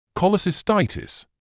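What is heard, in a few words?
"cholecystitis", British English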